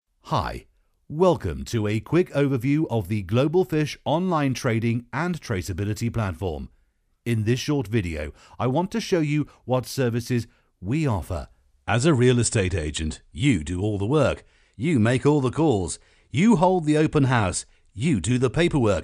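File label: english male; lektoring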